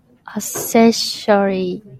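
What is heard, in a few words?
"accessory", Japanese female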